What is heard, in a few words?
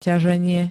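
"ťaženie", Slovak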